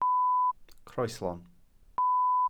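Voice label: "croeslon", Welsh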